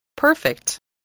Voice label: "perfect", English, voice

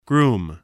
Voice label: "groom", spelling

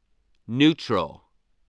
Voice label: "neutral", voice

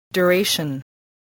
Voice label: "duration", English

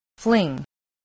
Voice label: "fling", voice

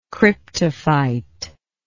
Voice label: "cryptophytic", english

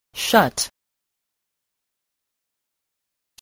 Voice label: "shut", spelling

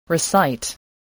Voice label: "recite", voice, english

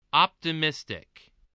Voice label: "optimistic", English voice

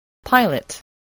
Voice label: "pilot", voice, English

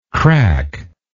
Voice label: "crackless", English

